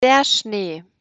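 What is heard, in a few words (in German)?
"Schnee", German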